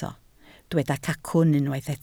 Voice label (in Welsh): "cacwn", Welsh